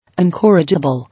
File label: "Incorrigible", English